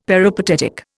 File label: "peripatetic", Indian; Dictionary